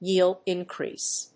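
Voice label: "YIELD INCREASE", English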